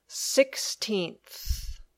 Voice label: "sixteenth", English

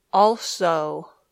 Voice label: "also", English

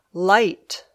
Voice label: "light", English

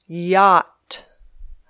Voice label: "yacht", English

